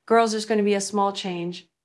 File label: Voice Acting es